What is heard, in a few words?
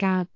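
"gaak6", Cantonese; amazonHiuJin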